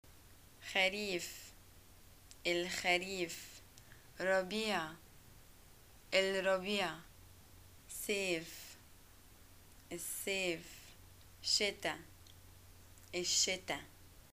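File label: seasons, arabic, Egyptian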